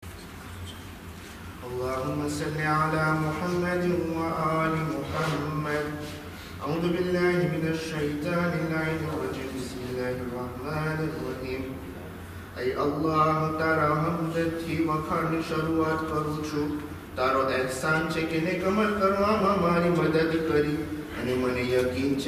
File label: Dua Iftetah recite Gujrati; khojaradio